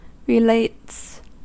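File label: "relates", American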